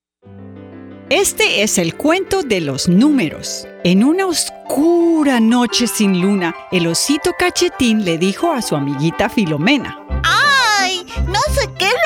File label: children narration Los Numeros